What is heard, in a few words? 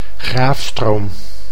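"Graafstroom", Dutch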